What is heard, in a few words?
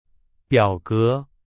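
Chinese; hsk3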